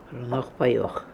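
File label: "Prnokhpaioch", pronunciations; Fourth Way; Beelzebub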